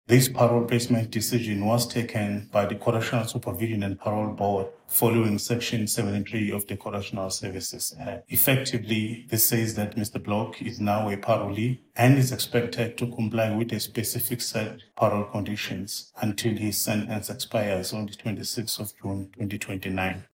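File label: English; Block Parole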